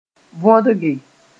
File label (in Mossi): "võdge", moore